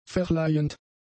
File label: "verleihend", German; adjectives